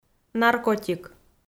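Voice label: "наркотик", Komi-Zyrian, female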